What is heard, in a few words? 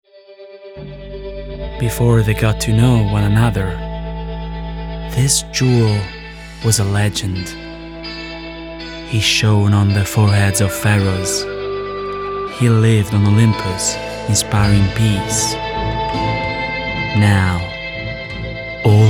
male italian english with italian accent